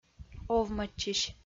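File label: "овмӧдчысь" Komi-Zyrian, female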